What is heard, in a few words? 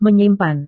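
"menyimpan", Indonesian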